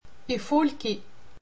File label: "eefoolkee", Berber